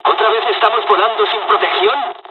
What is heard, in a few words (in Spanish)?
dialog, dialogs spanish; us midway; War Thunder